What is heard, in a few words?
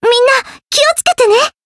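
encounter, jp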